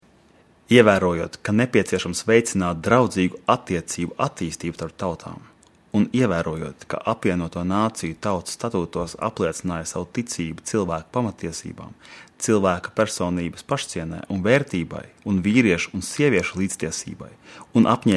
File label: Latvian